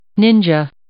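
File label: "ninja", English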